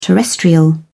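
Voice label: "terrestrial", English, British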